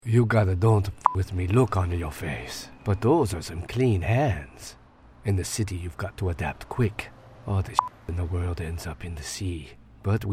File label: Character Asian Accent Video Game